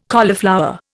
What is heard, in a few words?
"cauliflower", Indian